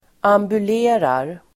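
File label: "ambulerar", Swedish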